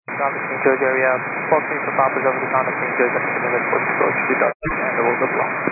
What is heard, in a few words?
122.925 MHz; scanner radio